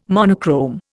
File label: "monochrome", Indian